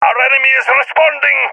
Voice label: takingfire, balkan; balkan epic